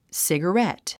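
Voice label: "cigarette", English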